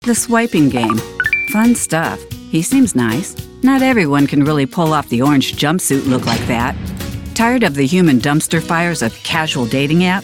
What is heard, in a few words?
Commercial